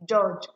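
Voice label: "judge", Nigerian Pidgin, female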